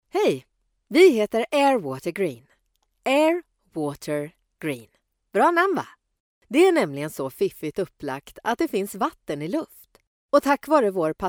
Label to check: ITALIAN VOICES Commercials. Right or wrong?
Wrong